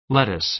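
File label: "lettuce", American English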